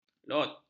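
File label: "lot", Malay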